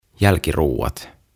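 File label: "Jälkiruoat" Finnish; Puddings; OTAVA KONSERNI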